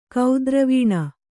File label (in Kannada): "ಕೇದಾರವನ", Kannada